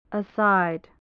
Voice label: "aside", English

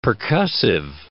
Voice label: "percussive", English

